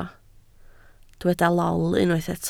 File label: "lol", Welsh